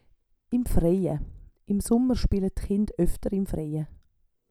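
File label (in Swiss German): "im Freie", Swiss German; Wortschatz Kapitel 8; Kapitel 8 Zusatzwortschatz 3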